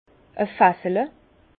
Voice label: "fassele", Bas Rhin; vin; tonnelet

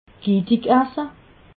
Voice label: "gitisch", alsace

